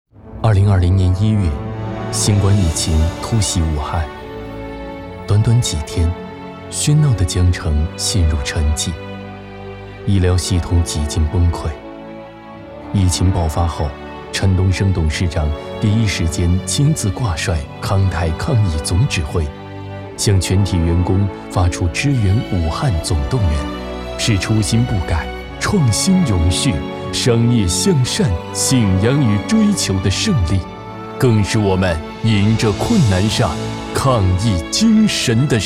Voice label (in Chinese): 男 专题 煽情走心; 泰康